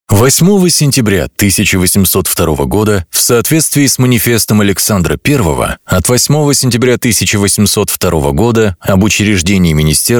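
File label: Russian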